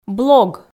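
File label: "блог", Komi, female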